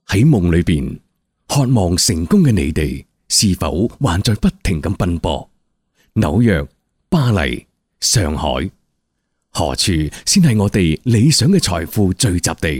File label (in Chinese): 男粤 广告 地产 磁性; 粤语; 宝盈皇冠广场